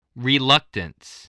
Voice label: "reluctance", spelling voice

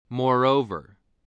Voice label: "moreover", voice, spelling

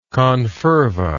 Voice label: "confervoid", English

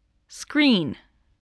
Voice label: "screen", english spelling

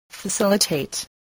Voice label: "facilitate", voice

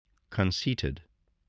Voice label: "conceited", english

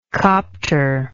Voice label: "copter", English

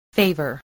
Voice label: "favour", spelling

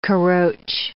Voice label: "caroche", English

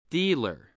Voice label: "dealer", English

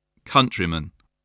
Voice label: "countryman", spelling

